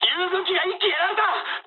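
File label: Japanese aircraft destroyed mood high; War Thunder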